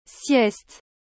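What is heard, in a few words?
"sieste", French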